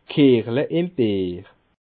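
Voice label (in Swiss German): "kiechle", Bas Rhin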